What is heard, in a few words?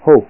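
"ho", Japanese kana; Learn Kana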